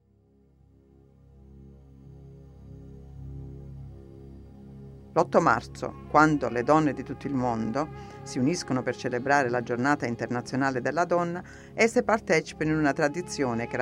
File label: Italian